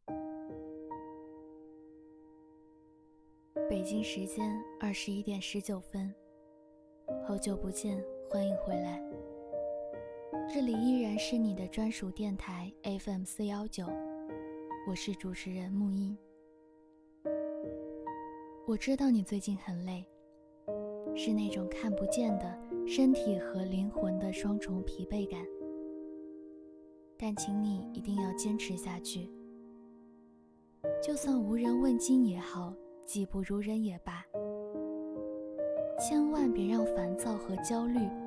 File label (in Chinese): 你的专属电台 低声, 女